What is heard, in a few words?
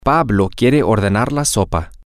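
pablo wants to order the soup, spanish, female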